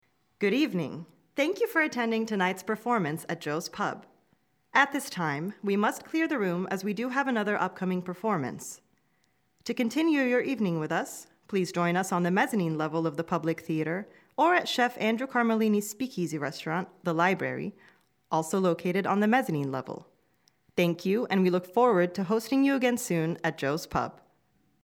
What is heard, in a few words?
JP announcement